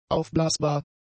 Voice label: "aufblasbar", German; adjectives